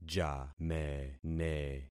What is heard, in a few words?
"jamene", concat syllables; Affixing experiment